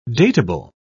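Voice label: "dateable", English, British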